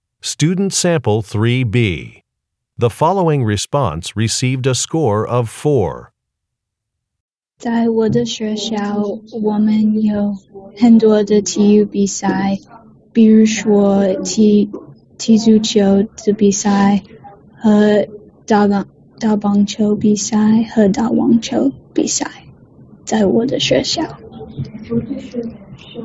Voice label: chinese conversation